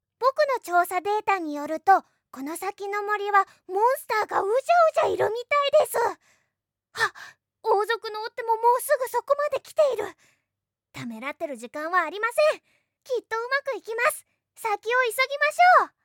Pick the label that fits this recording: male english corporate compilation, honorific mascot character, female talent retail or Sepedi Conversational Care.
honorific mascot character